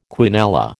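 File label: "quinella", American English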